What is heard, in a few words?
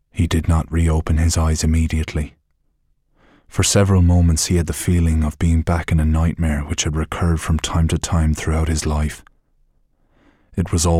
Audiobook and Narration